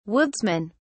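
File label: "woodsmen", British English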